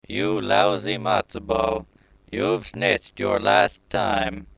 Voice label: RadioPlay; Robo-snitch